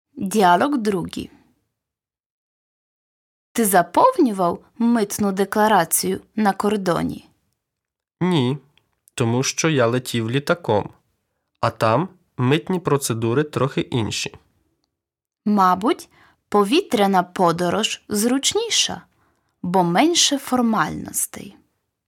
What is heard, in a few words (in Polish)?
Lekcja Dialog